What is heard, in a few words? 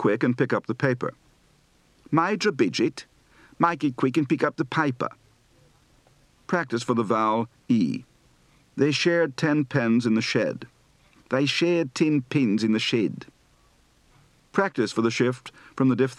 Australia And New Zealand, Accent